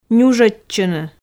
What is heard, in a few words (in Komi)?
"нюжодчыны", Komi